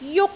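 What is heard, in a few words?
"juk1", Cantonese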